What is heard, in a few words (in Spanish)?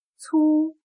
"粗", Chino